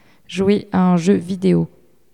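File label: "jouer a un jeu video", French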